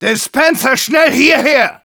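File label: Engineer need dispenser, German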